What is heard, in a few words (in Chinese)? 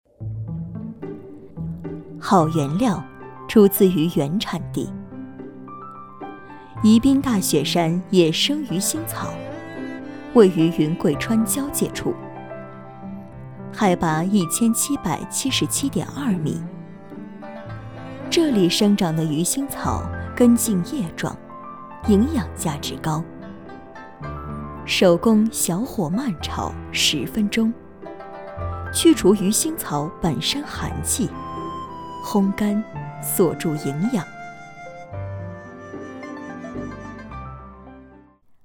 女 舌尖风 宜宾大雪山野生鱼腥草